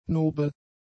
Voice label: "nobel", German; adjectives